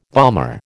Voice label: "bomber", American

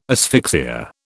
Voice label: "asphyxia", British

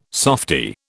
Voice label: "softie", British

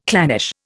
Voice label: "clannish", Indian

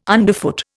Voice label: "underfoot", Indian

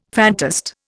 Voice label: "fantast", Indian

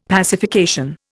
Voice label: "pacification", Indian; Dictionary

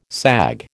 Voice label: "sag", American

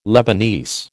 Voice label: "Lebanese", American

